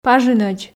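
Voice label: "пажынӧдз", Komi, female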